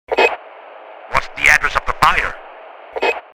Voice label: Firefighter radio chatter address of the fire